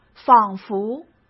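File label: "仿佛", Mandarin; chinese flashcards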